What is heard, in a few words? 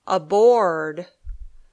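"aboard", English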